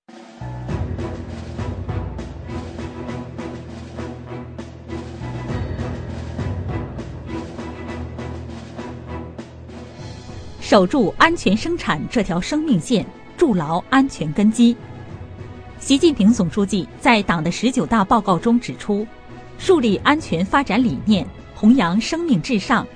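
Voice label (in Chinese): 女专题 安全生产汇报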